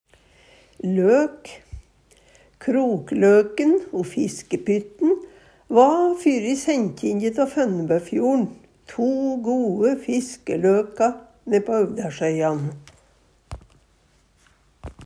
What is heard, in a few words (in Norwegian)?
løk, Numedalsmål